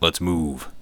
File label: lets move, bodyguard